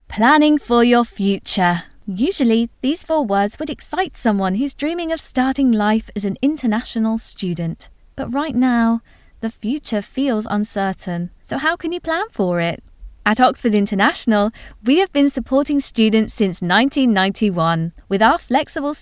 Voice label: Explainer